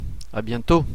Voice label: goodbye, french